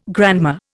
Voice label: "grandma", Indian

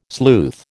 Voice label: "sleuth", American

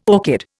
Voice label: "orchid", Indian

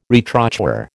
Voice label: "retrochoir", American

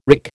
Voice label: "wrick", American

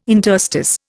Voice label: "interstice", Indian

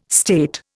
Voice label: "state", Indian

